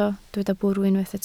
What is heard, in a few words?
"bwrw", Welsh